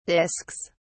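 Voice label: "discs", British English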